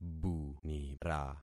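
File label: "bunira", concatenated syllables; Affixing experiment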